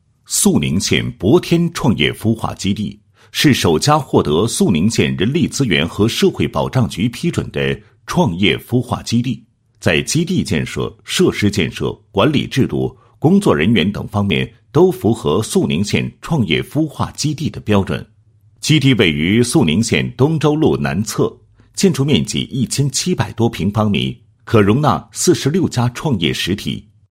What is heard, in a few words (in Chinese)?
男 企业宣传广告; 创业孵化基地宣传简介; 博天